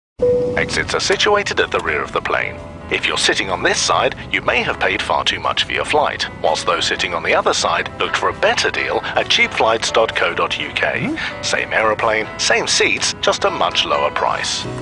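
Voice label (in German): British English, Variabel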